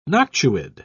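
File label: "noctuid", British English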